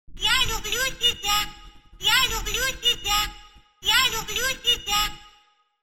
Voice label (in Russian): zvuk igrushki ya lublu tebia